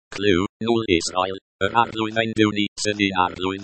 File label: Welsh; Music